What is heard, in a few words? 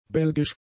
"belgisch", German; adjectives